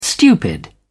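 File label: "stupid", British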